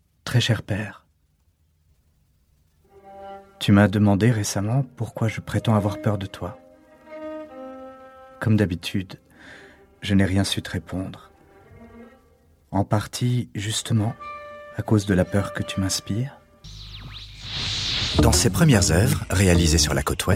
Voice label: Medley Narration, voix